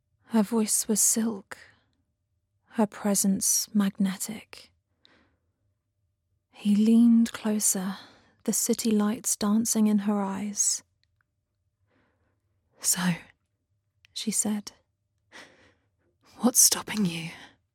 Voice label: Audiobook Sample